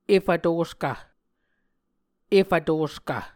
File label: "efv toskv", Muscogee